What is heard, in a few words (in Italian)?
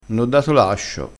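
"lascio", crespinese dialettale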